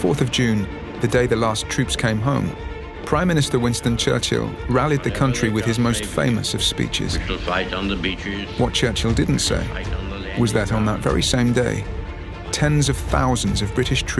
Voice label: Documentary Narration Dunkirk